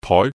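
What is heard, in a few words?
"toi4", Cantonese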